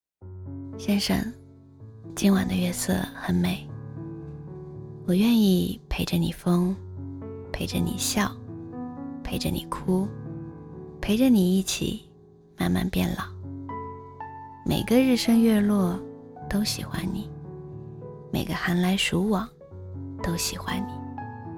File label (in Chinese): 深情告白